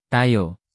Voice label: "ang", tagalog pronouns